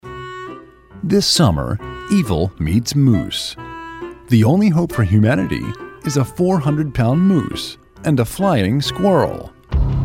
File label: Movie Trailers